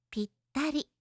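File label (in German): "pittari", japanische Mädchennamen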